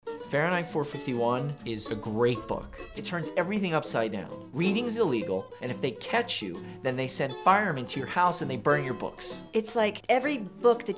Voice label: radio